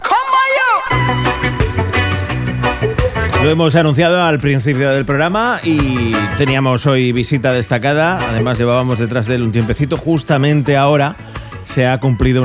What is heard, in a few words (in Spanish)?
entrevista radio; aniversario gobierno la vila; Radio Sirena COPE